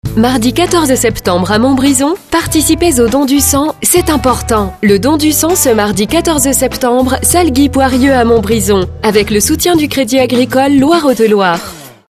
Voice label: spot, radiopub